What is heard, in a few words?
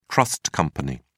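"trust company", British English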